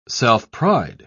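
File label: "self-pride", British English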